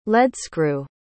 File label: "leadscrew", pronounce